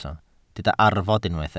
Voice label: "arfod", Welsh